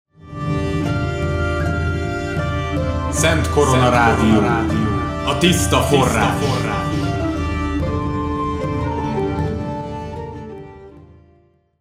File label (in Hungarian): spot